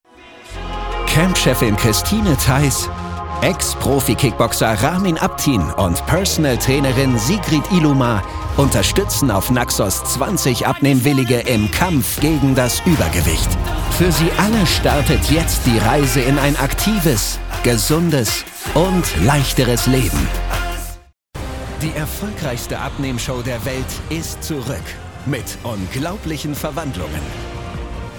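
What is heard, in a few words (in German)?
Kommentar, Männer; Leben leicht gemacht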